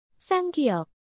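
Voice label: "ㄲ", Korean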